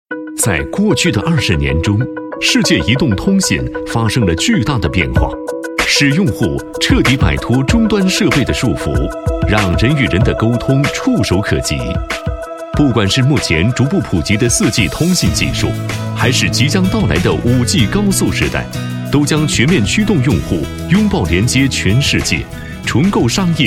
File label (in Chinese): 男 广告 科技感; 国语男声配音; 磁性